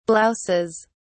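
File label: "blouses", British English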